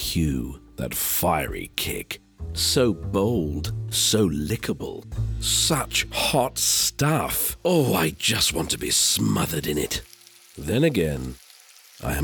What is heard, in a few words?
male english radio commercial; colman s